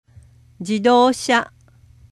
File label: "jidoosha", car; kanji nouns traffic